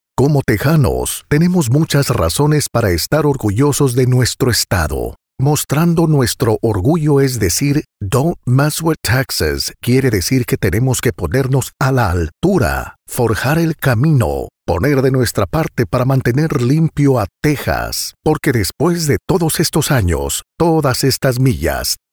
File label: Spanish